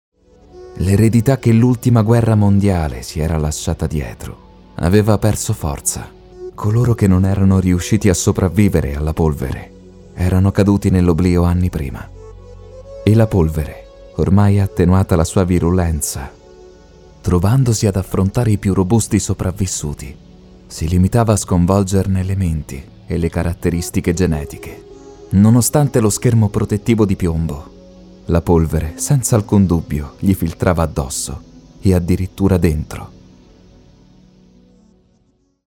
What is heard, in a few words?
italian audiobooks